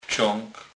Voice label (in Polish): "wcag", Kurpie